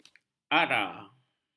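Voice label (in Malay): "ara", Malay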